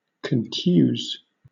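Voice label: "contuse", British English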